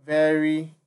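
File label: "very", Nigerian Pidgin, male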